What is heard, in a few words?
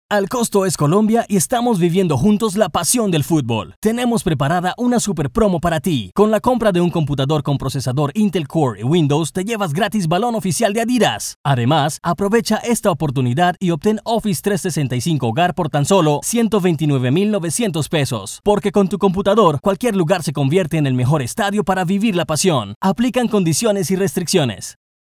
SPOT; GOLCARACOL MUNDIAL